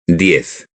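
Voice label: "diez", Spanish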